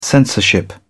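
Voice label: "censorship", British English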